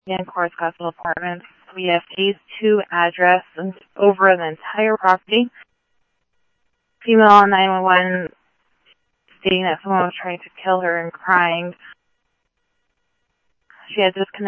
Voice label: Scottsdale Police, scanner audio; H-Scottsdale; SCTSDLE PD - PD2 ScottsdalePolice

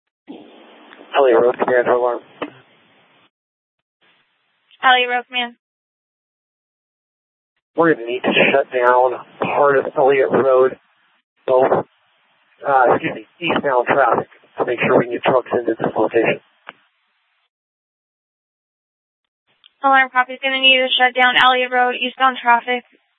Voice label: FD Departments VHF 154.800 Patch, scanner

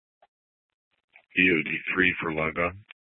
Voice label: Dispatch, scanner; Superstition; Mesa PD; TOPAZ Regional Wireless Cooperative (TRWC); Mesa Simulcast

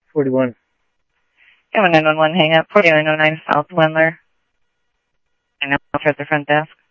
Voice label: Tempe Police, scanner audio; TEMPE PD A04; SimulcastF-Tempe

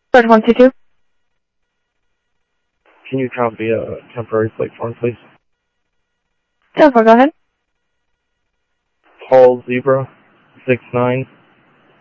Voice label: scanner audio trunk

